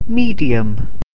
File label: "medium", English